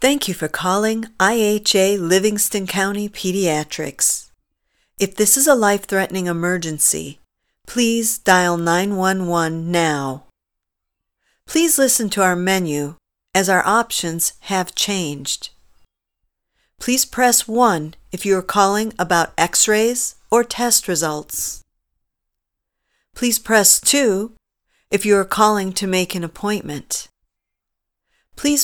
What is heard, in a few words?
phone